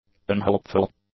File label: "unhelpful", english; adjectives